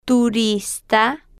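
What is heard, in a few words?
"turista", Spanish, female, slow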